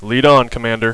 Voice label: lead on commander, radio bot; cstrike